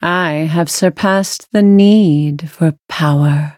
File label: levelup en